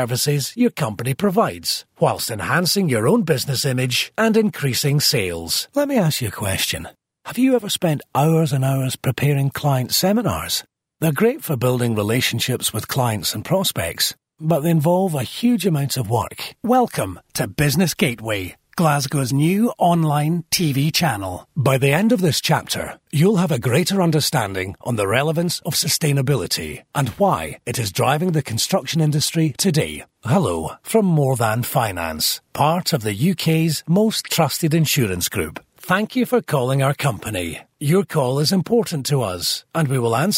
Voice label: on-hold

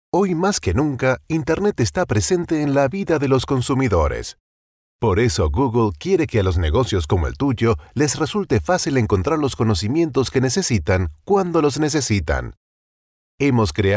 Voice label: spanish male corporate; google

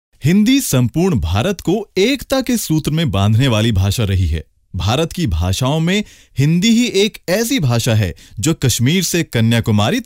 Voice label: narration

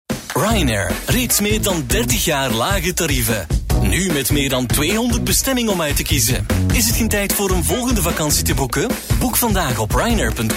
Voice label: flemish male radio commercials

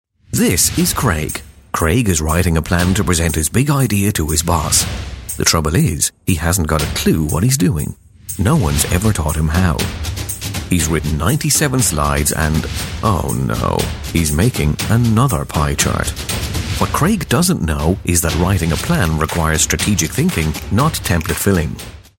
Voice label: irish voice corporate natural

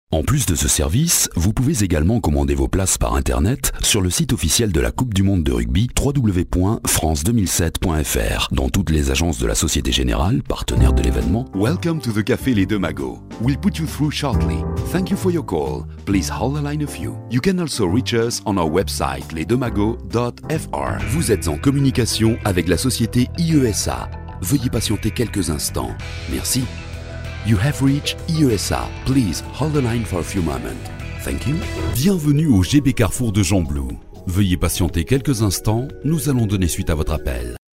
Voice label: french telephone

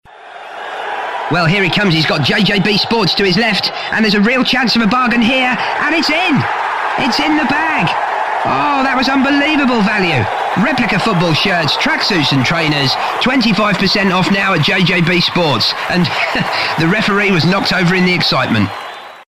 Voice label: football commentator

